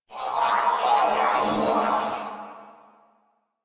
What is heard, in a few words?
Death Crewmen, Battle Barks; Dialog; Warframe; Corpus Crewmen; Crewman C